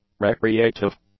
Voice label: "recreative", English; adjectives